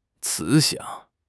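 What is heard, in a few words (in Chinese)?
"慈祥", Chinese; HSK6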